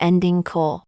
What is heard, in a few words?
"kle" phoneme; sprinkle